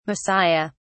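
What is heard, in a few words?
"messiah", English, British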